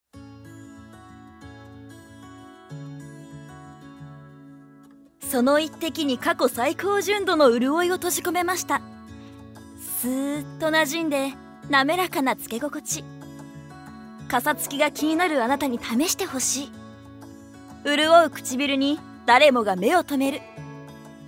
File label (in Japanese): ナレーション